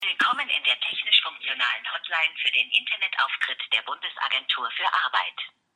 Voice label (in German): technisch funktionale Ansage der Bundesagentur für Arbeit